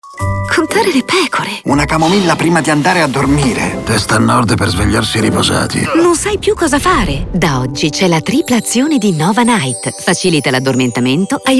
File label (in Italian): istituzionale; novanight